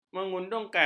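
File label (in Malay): "mengudungkan", Malay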